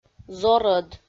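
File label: "зорӧд", Komi-Zyrian, female; audio dict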